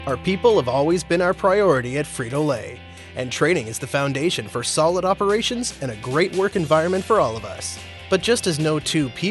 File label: english canadian e-learning